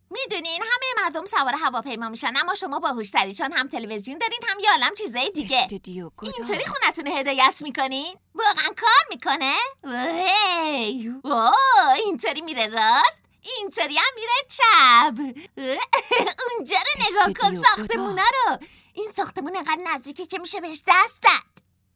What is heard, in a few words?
dub